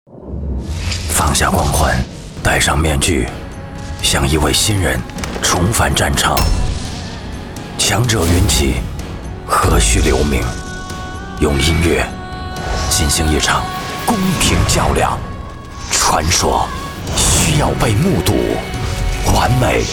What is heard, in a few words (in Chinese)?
男 广告 宣传片 国语男声配音